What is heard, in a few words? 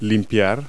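"cleave", English word; Multi-Lingual Talking Picture Dictionary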